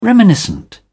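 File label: "reminiscent", British English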